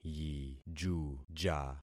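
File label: "yijuja", concatenated syllables; Affixing experiment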